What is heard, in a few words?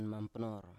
"mampnor", Biak